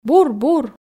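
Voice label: "бур бур", Komi, female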